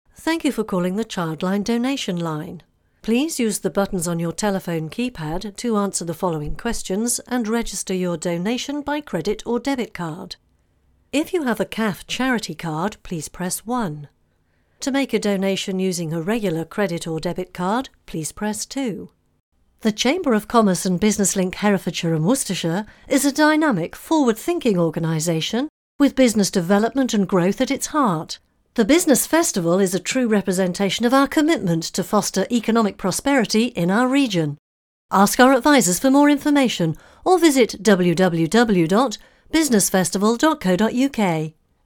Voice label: on hold